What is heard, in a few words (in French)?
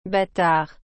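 "bâtard", French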